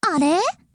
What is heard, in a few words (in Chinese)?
日语音效